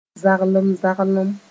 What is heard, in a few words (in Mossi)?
"zaglem-zaglem", moore